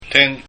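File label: "ten", Japanese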